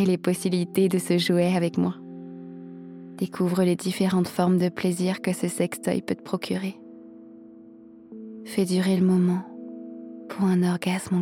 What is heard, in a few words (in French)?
masturbation guidee teaser with music; vibro flow